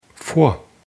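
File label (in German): "vor", German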